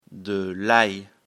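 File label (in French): "ail", French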